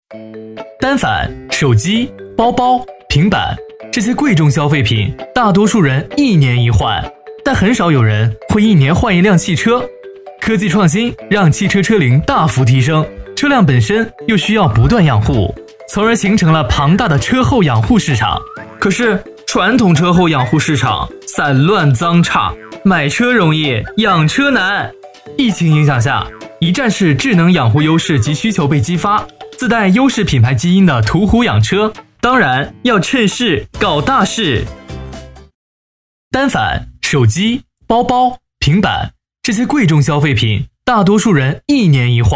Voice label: 轻快年轻明亮; 途虎养车

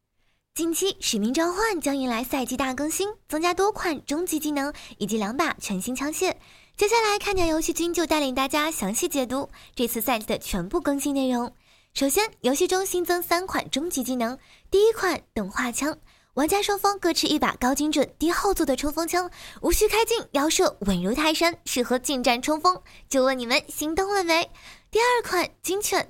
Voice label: 萝莉音; 使命召唤

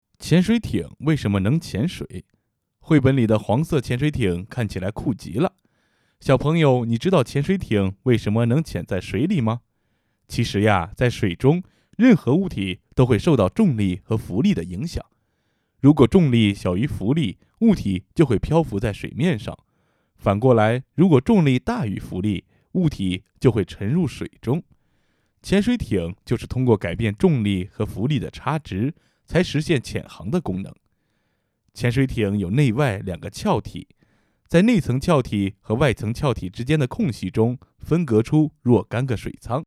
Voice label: 儿童故事

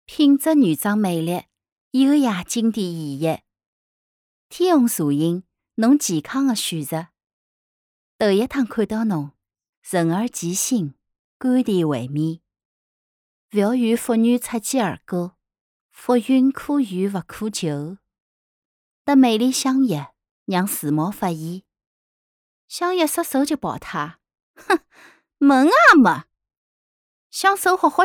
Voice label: 沪 各种情绪

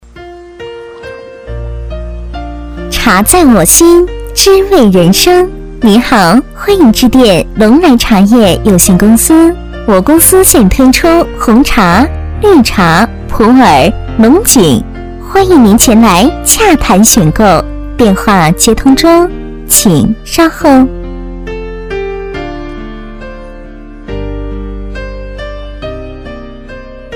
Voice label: 彩铃配音茶叶

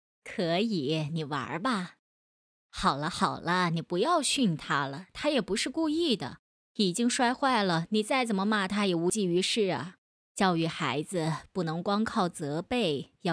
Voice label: 阿姨角色; 家有二胎

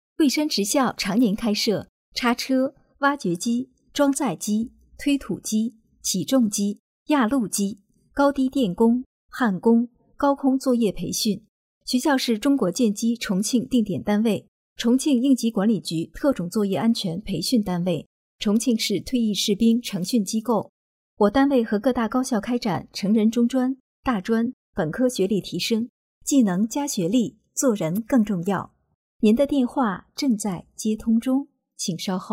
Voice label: 彩铃

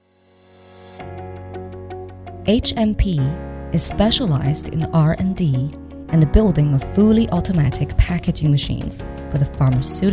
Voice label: 美式宣传片

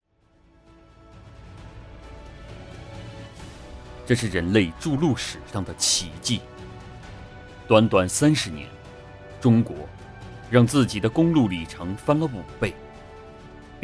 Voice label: 朗诵音乐; 筑路史上的奇迹

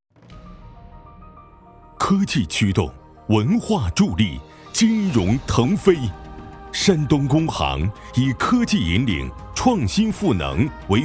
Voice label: 宣传; 山东工行

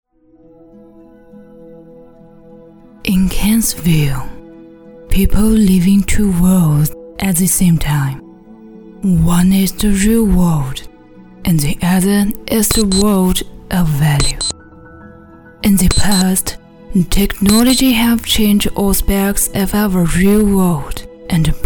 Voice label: 英语旁白; 声临其境种族歧视

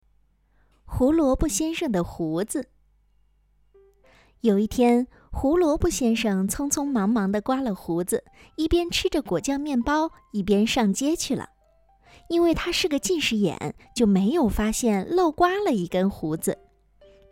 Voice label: 专题动画片角色扮演儿童读物课件

